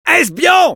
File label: cloaked spy, fr; "Espion!"; Medic